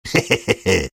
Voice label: sniper taunt rps win